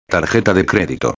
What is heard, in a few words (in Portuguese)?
"tarjeta de credito", espanhol